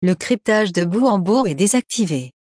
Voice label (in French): e2ee Off fr CA; jitsi meet